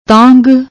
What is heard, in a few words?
"taangh", Punjabi; ਤਾਂਘ